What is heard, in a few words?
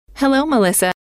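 hello, female; trainer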